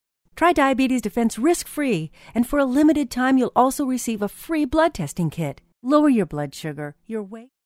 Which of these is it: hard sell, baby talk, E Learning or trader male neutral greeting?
hard sell